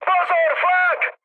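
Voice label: damaged aa, Czech, mood high; War Thunder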